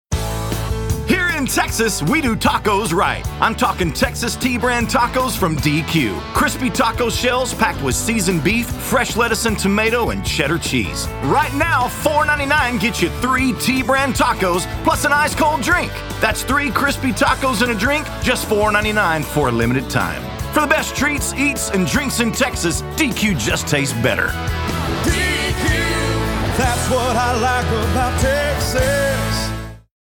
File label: english; texas tacos